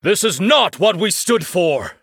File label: Male Human